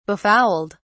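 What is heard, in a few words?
"befouled", en, uk British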